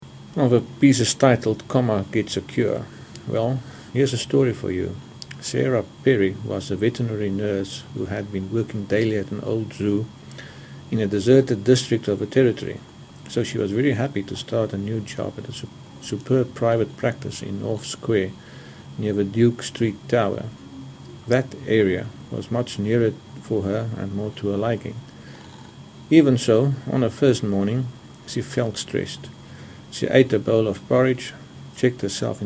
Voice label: South Africa Common, dialect samples

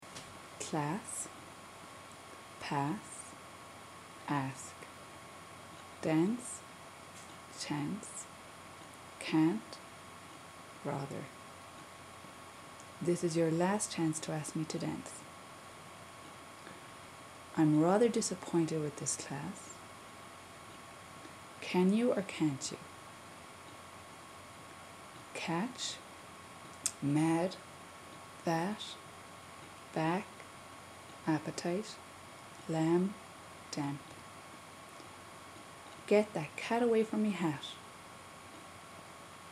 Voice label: irish practice, dialect samples, ireland

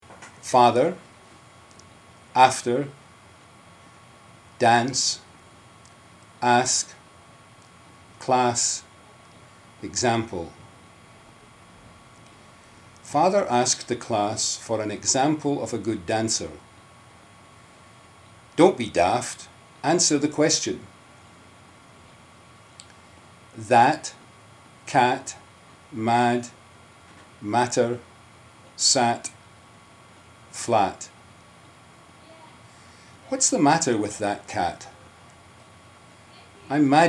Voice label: Scotland Practice, dialect samples

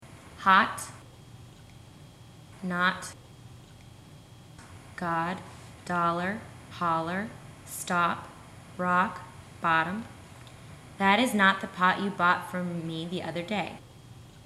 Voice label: us ny pennsylvania practice; usa; dialect samples

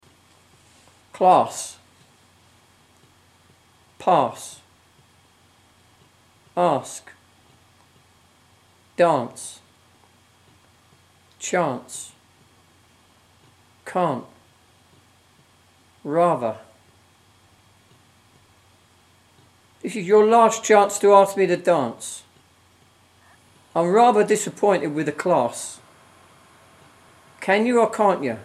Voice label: London, dialects; England; London 1; London – Bromley; A male university student in his mid-twenties. This dialect subject was born in Lewisham (Central London)

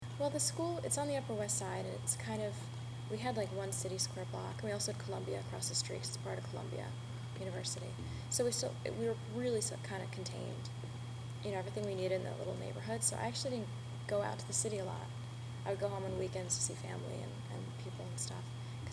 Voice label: US New Jersey Conversation, dialect samples; usa; Central Jersey

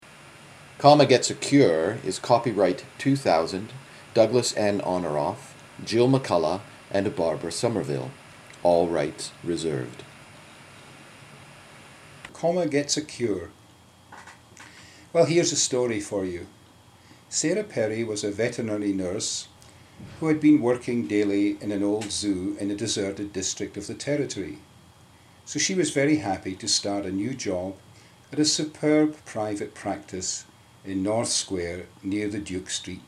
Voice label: Scotland Common, dialect samples

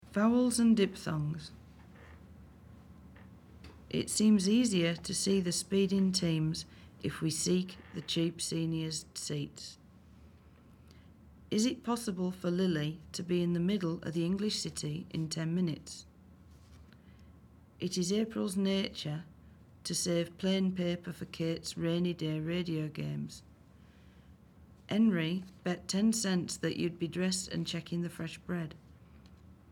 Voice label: england hull, dialects

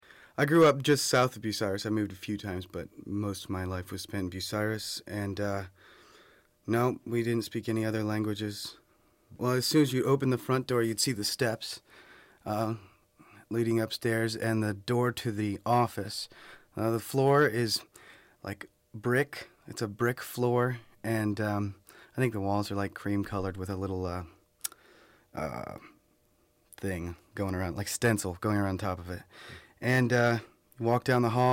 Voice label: ohio conversation, dialect samples, usa

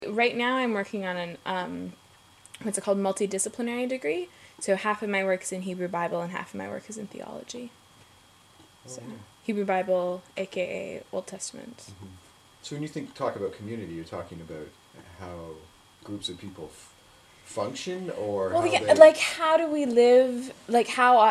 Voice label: Burnaby Conversation, dialect samples, canada; Vancouver, British Columbia; A stay-at-home dad in his mid-thirties.; Natural Conversation

